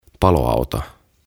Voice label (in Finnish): "Paloauto" "Fire Engine"; OTAVA KONSERNI